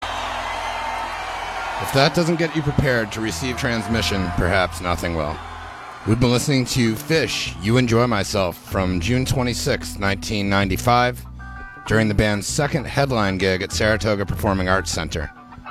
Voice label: radio banter; SPAC